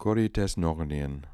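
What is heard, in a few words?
"Koritesnokhnian", pronunciations; Fourth Way; Beelzebub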